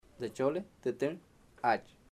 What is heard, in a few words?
tomorrow I'll go to field, Chamacoco